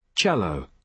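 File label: "cello", British English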